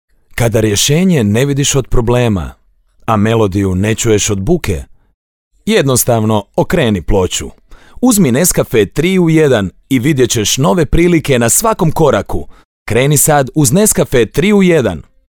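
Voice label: croatian male commercial, voice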